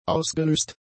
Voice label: "ausgelöst", German; adjectives